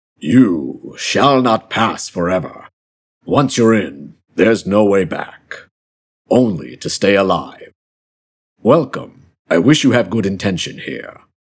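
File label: ENGLISH CHARACTER, voice sounds serious and powerful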